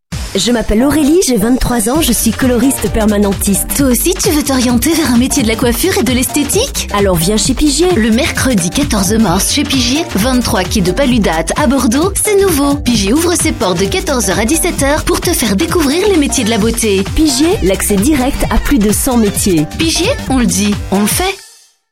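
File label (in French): spot, spots radio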